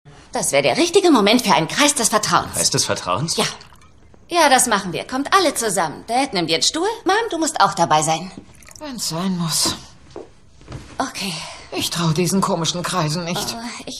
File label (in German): Synchron; Kiss and Kill